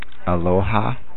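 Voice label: "aloha", American, male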